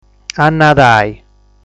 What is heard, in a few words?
"Annadai", sardu